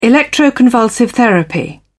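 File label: "electroconvulsive therapy", British English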